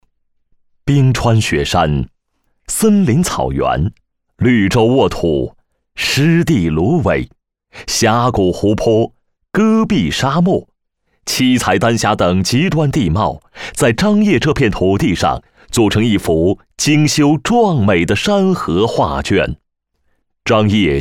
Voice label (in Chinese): 宣传片 旅游 旅游景区; 张掖七彩丹霞